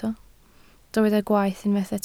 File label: "gwaeth", Welsh